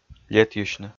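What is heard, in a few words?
"летйысьны", Komi, male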